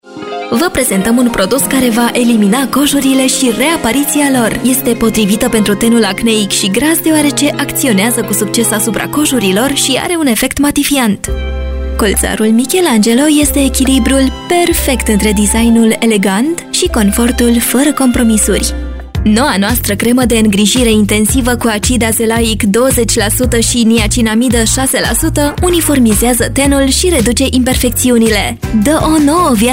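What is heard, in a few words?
romanian explainer videos, Mujer; una locutora profesional de Rumania; Vídeos explicativos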